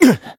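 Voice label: Voice damaged, Japanese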